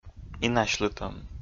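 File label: "инасьлытӧм", Komi-Zyrian, male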